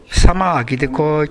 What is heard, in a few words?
"sama", minamiyamagata